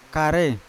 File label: "kare", Yawa; banana; unat